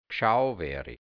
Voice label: "Gschauweri", Hianzisch, Mundart, Burgenland; Gesichtsausdruck

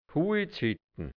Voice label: "Huizhittn", Hianzisch Mundart; w Holzhütte

